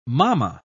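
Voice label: "mamma", English, uk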